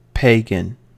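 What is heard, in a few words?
"pagan", American English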